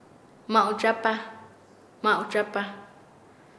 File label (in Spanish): "como quiera", Nasa Yuwe; paez